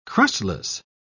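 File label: "crustless", British English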